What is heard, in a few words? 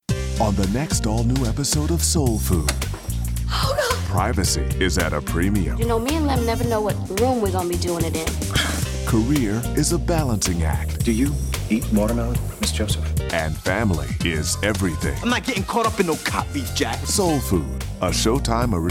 Promo